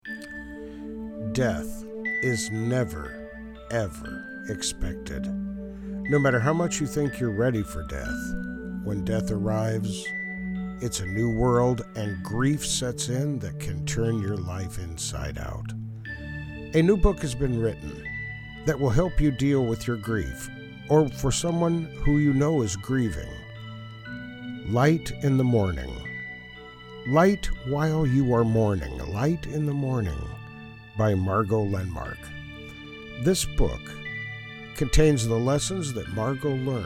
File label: Promo; Light in the Mourning